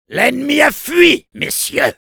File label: cheers, fr; Soldier